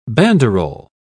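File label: "banderol", British English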